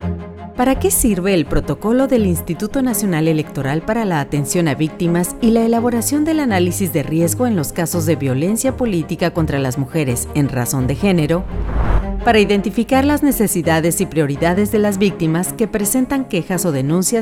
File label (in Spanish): ESPANOL